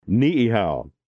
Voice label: niihau, pronunciation hawaii

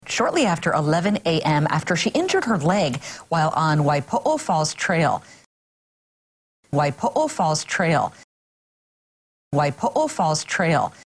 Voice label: waipoo, pronunciation hawaii

